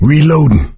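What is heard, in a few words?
reloading quiet, male; Left 4 Dead 2; coach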